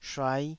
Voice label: "shuai5", taiwanese

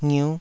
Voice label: "ngiuh5", taiwanese syllables

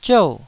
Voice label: "ziou4", Taiwanese

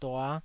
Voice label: "dua5", taiwanese syllables

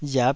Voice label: "riap5", taiwanese

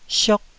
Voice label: "siok1", Taiwanese syllable

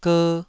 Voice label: "ger2", Taiwanese syllable

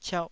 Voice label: "ciauh2", Taiwanese

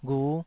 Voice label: "ghu5", Taiwanese syllable

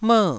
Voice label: "mer4", taiwanese

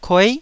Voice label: "kuei9", Taiwanese syllable

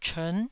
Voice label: "chern9", taiwanese

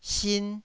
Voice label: "sin1", taiwanese Syllables

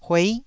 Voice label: "huei9", Taiwanese syllable

